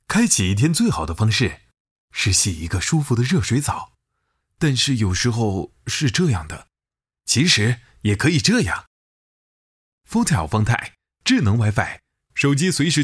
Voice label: 男 广告 调皮感, 暖声; 方太

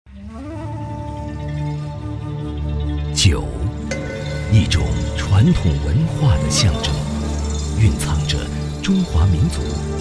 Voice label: 抒情舒缓类; 酒

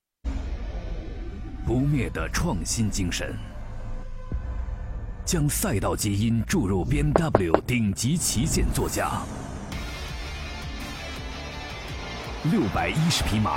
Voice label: 男 汽车广告; 新BMW; 7系旗舰M760Li xDrive

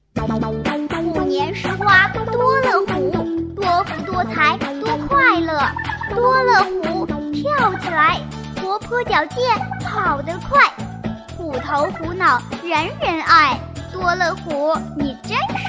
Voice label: 童声, 国语女声配音; 多乐虎

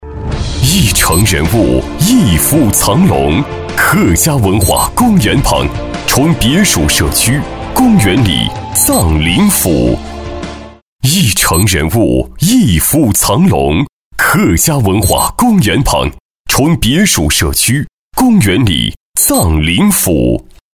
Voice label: 男 广告 磁性有力; 公园里·藏珑府

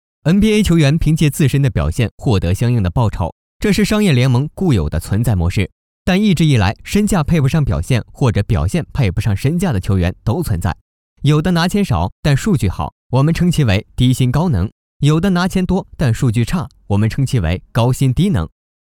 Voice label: 解说; NBA

